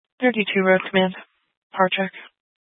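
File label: Incident Tactical, scanner audio recording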